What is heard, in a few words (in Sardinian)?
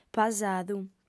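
"pasadu", female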